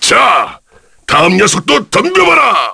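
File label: Vox Victory, Korean; kingsraid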